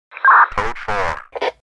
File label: Police radio chatter signal code 4